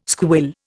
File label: "squill", Indian